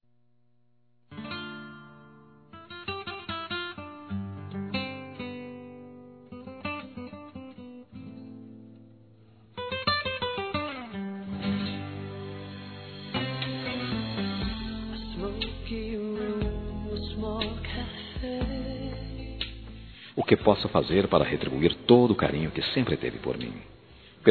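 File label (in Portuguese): Casamento Esposa Masculino; Aniversários; ANIVERSARIO romantico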